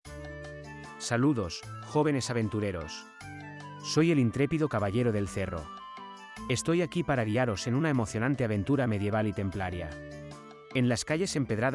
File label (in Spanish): Presentacion Ruta Gamificada Con Musica; Burguillos del Cerro